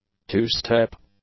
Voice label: "two-step", English; adjectives